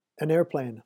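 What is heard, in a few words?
"an airplane", English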